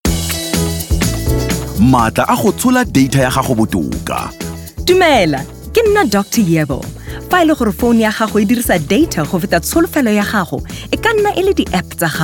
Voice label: Tswana

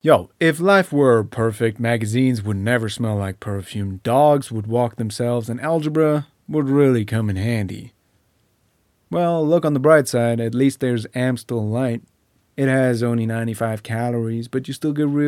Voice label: Accent American Conversational

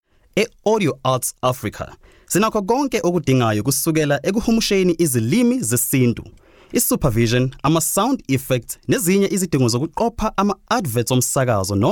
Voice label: Zulu Retail

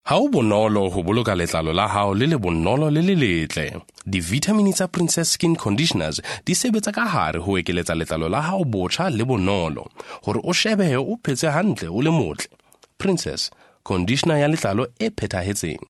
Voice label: SeSotho Conversational

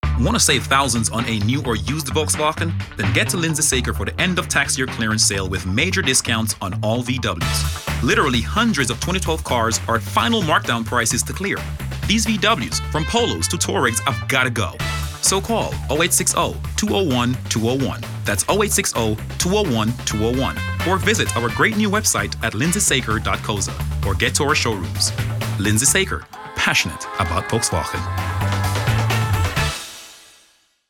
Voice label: English Medium Sell

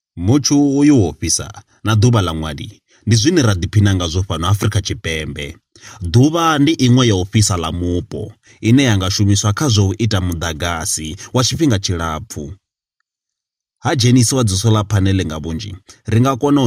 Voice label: Venda Corporate

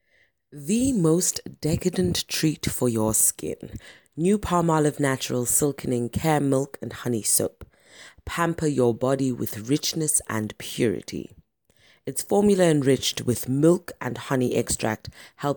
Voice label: English Intimate

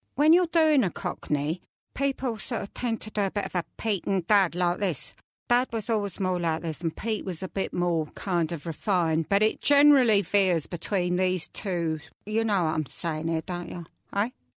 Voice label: Accent Cockney

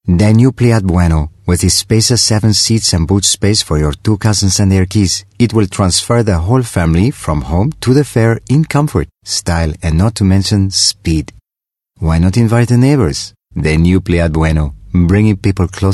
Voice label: Accent Spanish

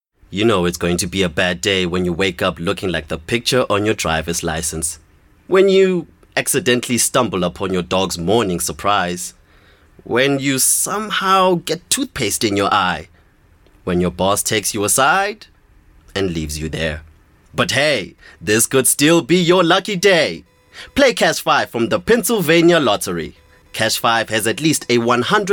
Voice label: English Conversational

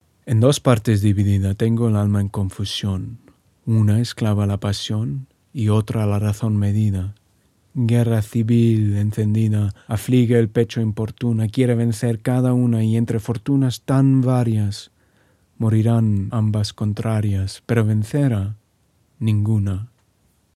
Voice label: Spanish Narrator

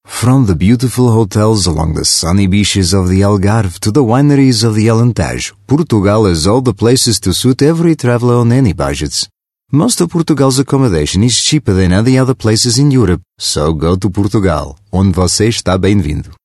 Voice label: Accent Portuguese

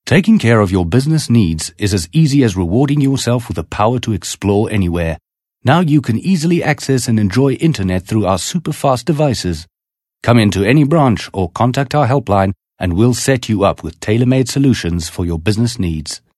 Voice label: English Corporate

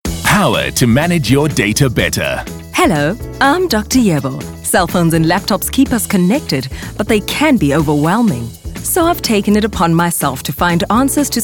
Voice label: English Corporate

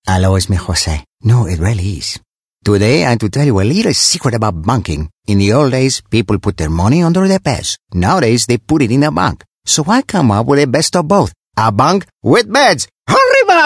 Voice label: Accent Mexican

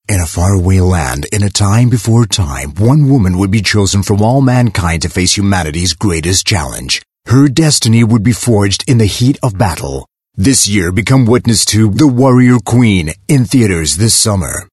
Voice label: English Promo

